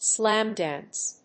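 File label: "slamdance", English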